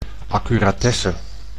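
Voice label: "accuratesse", Dutch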